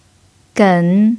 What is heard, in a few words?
"gen3", chinese pinyin